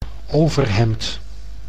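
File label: "overhemd", Dutch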